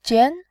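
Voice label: "jian", Mandarin, second tone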